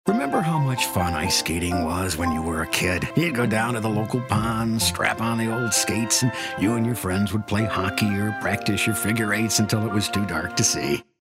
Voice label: folksy homespun friendly genuine